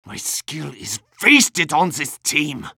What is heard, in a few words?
medic jeers; vo; tf2